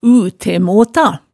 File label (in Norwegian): "utemota", hemsedalsmaalet, tale